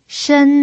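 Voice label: "shen" Mandarin Chinese, first tone, pinyin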